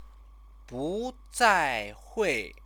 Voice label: "buzaihui", Chinese; 不 再 会; will not (happen) again; idiomatic phrase; Chinese lessons